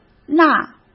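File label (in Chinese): "那", Mandarin Chinese; chinese flashcards; hsk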